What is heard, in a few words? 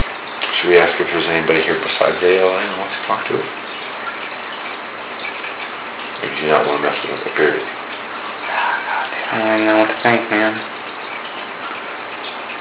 E.V.P. angry voice "no g damn it"